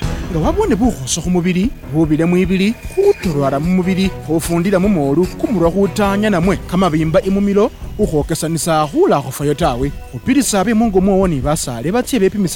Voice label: MPOX Narrator LUMASABA, radio spots; The MPOX Campaign Safe Circles

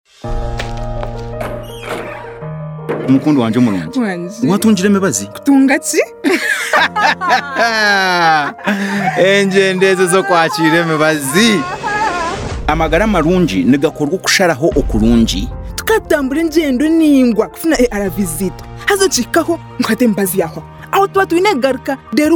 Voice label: Time Up HIV Spot DSD Runyankore Rukiga; radio spot

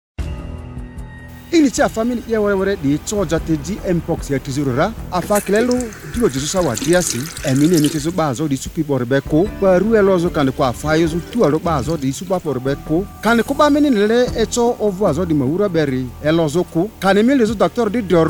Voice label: Narrator LUGBARA, radio spots; MPOX; The MPOX Campaign Safe Circles

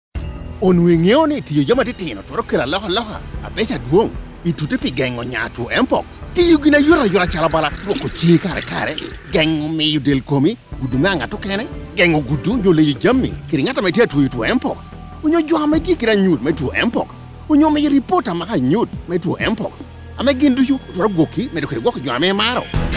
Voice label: Narrator LANGO, radio spots; MPOX; The MPOX Campaign Safe Circles

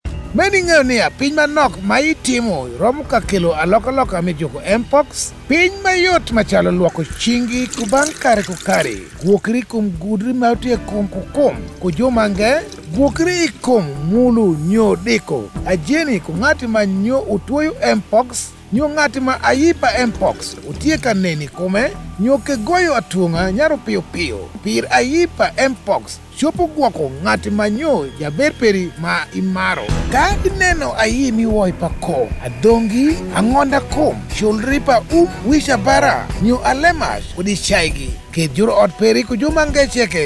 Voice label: Narrator Alur, radio spots; MPOX; The MPOX Campaign Safe Circles

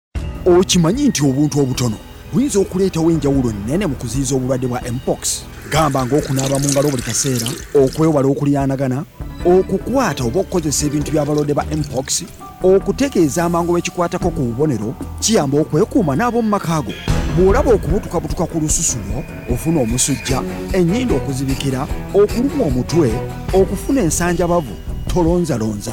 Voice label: Narrator LUGANDA, radio spots; MPOX; The MPOX Campaign Safe Circles

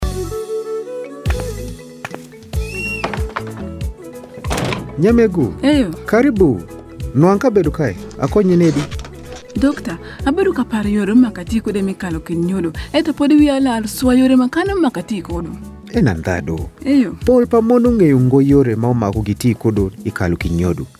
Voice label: IUD Health Worker Spot Alur; radio spots

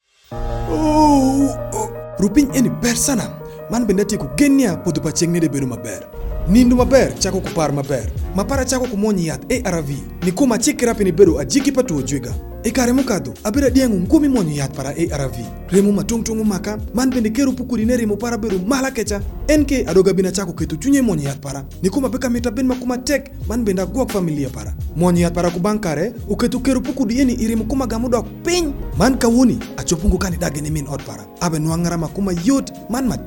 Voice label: HIV Spot Viral Load Suppression Alur; radio spot

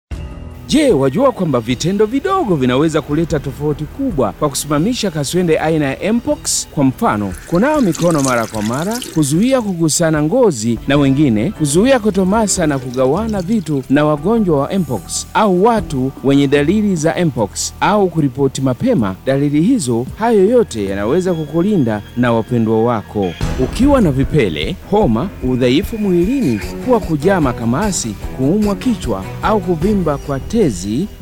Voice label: Narrator KISWAHILI, radio spots; MPOX; The MPOX Campaign Safe Circles

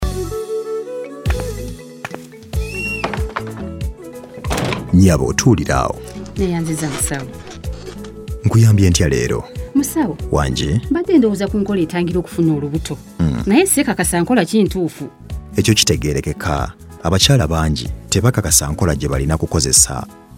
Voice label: IUD Health Worker Spot Luganda; radio spots

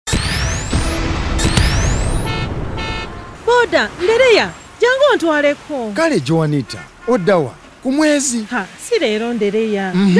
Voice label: LUG, radio spots luganda; adolescent health campaign radio spots; BOLD; Taking Charge

